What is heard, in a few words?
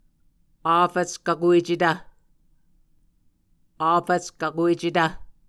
"afvckvkuecetv", Muscogee; Mvskoke Words with Voicing Consonants; A Dictionary of Creek/Muskogee; SIL International